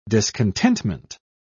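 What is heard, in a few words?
"discontentment", English, British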